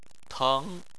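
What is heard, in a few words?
"teng", Chinese, second tone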